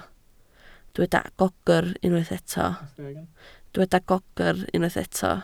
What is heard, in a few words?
"gogr", Welsh